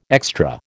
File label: "extra", American